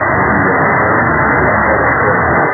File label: voice fm filtered